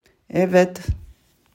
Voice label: "Evet", Crimean Tatar